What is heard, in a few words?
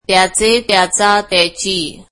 marathi his